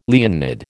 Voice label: "Leonid", American

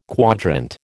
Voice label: "quadrant", American

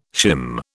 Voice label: "shim", British

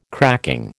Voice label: "cracking", American

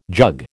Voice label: "jug", American

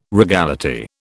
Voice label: "regality", British

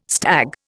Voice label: "stag", Indian

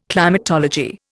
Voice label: "climatology", Indian

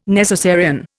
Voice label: "necessarian", Indian

